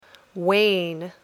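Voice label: "wane", English word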